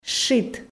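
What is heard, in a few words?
"щит", Komi, female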